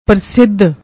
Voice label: "parsidh", Punjabi